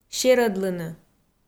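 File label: "серӧдлыны", Komi, female; kpv audio dict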